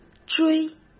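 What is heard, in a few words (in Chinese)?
"追", Mandarin Chinese; chinese flashcards; hsk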